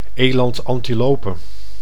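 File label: "elandantilope", Dutch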